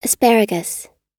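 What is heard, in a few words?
"asparagus", American English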